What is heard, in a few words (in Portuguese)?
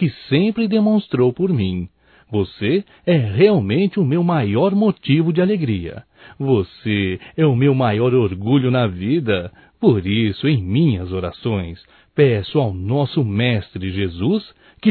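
Agradecimento para filho male, Só Voz; Telemensagens; AGRADECIMENTOS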